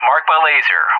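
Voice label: "Marked by laser", English; JTAC; DCS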